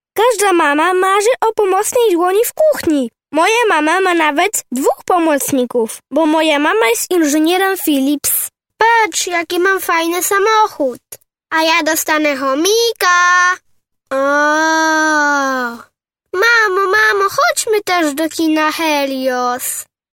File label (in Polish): Dziecko